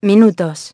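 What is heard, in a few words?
"minutes", English; iOS 4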